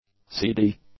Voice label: "seedy", English; adjectives